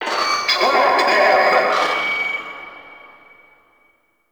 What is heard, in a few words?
Vocal Loudspeaker; Warframe; Duviri; Ambience; Town Escape; Dax